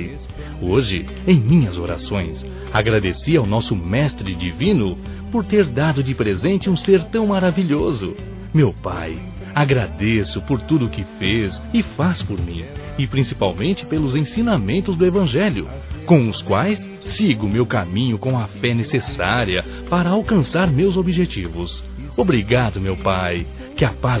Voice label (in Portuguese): Natal Pai Masculino; Telemensagens; Natal e Ano novo